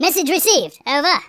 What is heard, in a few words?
"yes sir", speech; Biggles